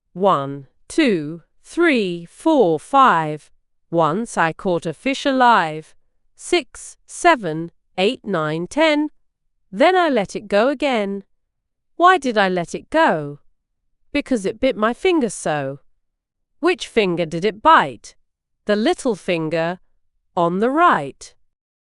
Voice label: fem brit; Fish Story